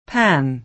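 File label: "pan", American English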